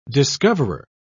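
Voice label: "discoverer", English, British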